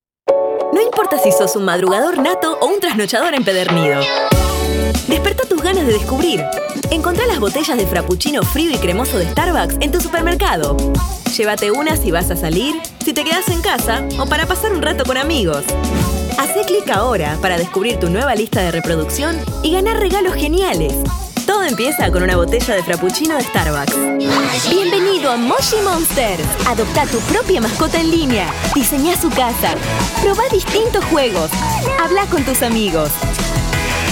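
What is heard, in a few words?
Latin American Spanish, Argentinian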